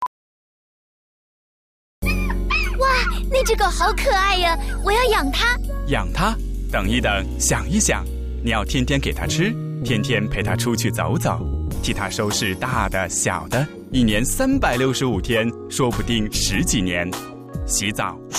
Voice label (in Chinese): 普通話男聲